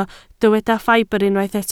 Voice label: "ffibr", Welsh